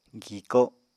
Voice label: "giko", Apatani

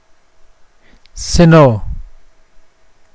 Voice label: "snow", Mokilese

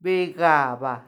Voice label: "begaba", ladder, macuiltianguis

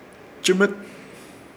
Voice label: "Chɨmɨt", Ishir Chamacoco; terminar

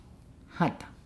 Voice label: "elevated platform", Nukuoro

